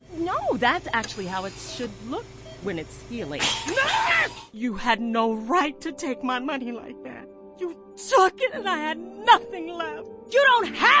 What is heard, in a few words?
Character Demo